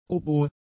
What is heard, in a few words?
"Oboe", German